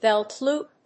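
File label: "belt loop", English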